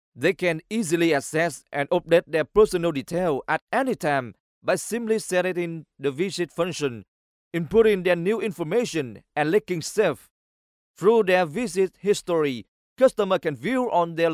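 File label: Vietnamese male voice over, English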